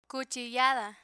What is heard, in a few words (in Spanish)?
"cuchillada", Spanish; learn spanish